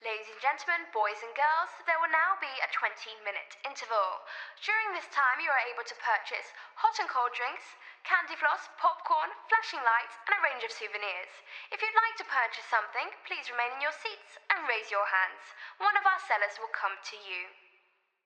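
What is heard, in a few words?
Voice Female Announcement Wet, Ringmaster, Performer, Dialogue, Circus; Circus Ambiences; Jake Fielding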